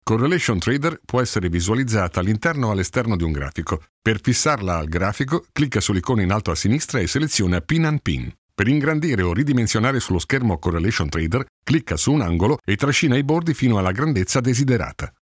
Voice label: italian e-learning